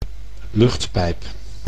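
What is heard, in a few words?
"luchtpijp", Dutch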